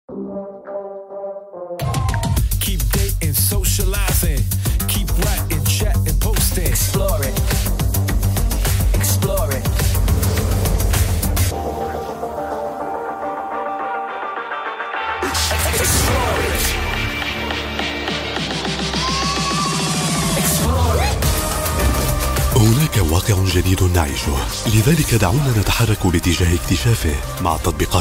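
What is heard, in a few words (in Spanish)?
comercial, Argentina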